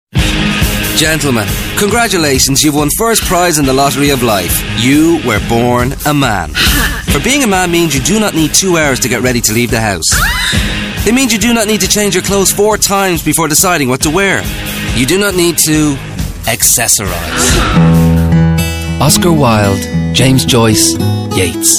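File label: English Irish, male, commercial; voice demos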